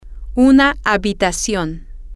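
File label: a Room, Spanish, female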